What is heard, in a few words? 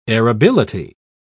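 "arability", British English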